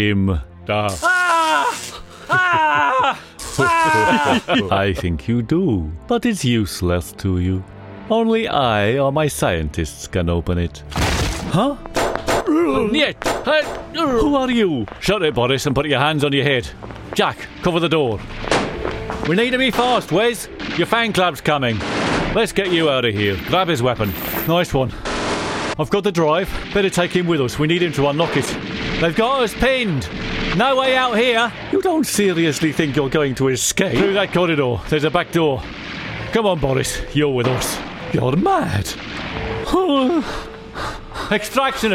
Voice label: gaming voice